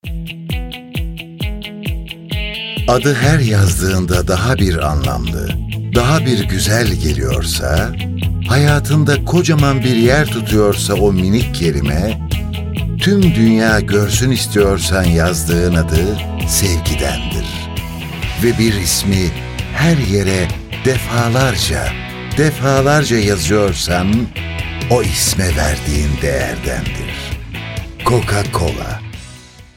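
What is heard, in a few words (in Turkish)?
sicak samimi, seslendirme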